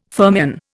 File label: "fermion", Indian